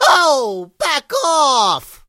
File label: hurt vo